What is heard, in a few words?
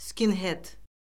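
"skinhed", Azerbaijani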